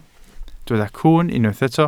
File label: "cwwn", Welsh